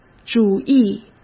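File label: "主义", Mandarin Chinese; hsk; chinese-flashcards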